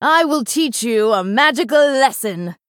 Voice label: Female Night Elf Threaten

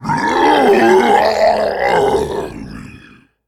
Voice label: Male Faceless Death

